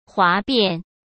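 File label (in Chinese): "哗变", Mandarin; chinese flashcards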